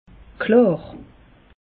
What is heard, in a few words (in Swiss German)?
"klar", alsace; meteo